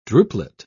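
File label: "drupelet", British English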